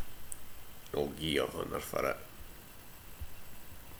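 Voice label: "hunner", slang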